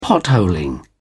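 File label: "potholing", British English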